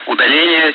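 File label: Speech, Russian, air traffic control; ATC; DCS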